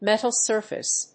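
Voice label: "metal surface", English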